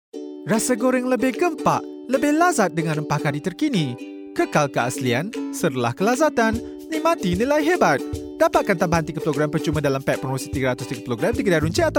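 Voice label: Malay Malaysia male voice over, sales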